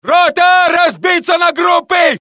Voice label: Russian; mohaa

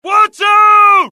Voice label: alert, dialogue generic US; mohaa

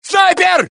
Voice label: Russian; mohaa

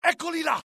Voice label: alert, dialogue IT; mohaa; attack

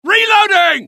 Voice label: reload, dialogue UK; mohaa

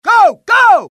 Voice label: suppress, dialogue, US; mohaa

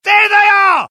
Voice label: alert, dialogue UK; mohaa

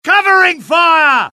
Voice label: suppress, dialogue UK; mohaa

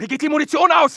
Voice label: fear, dialogue; mohaa

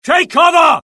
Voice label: alert, UK; dialogue; mohaa

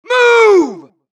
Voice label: supress, dialogue Allies; mohaa; Mission 2

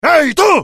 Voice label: anger, dialogue IT; mohaa; attack

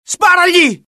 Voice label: alert, dialogue IT; mohaa; attack

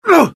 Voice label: death, UK; mohaa; dialogue

